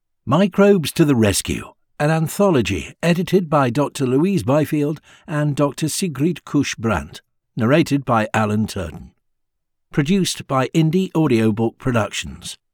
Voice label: Opening Credits, Audiobook